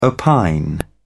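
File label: "opine", British English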